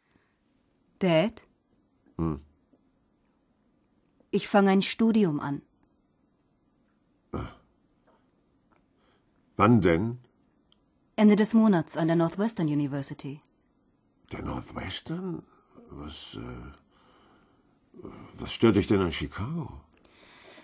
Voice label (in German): hoerspiel, frauen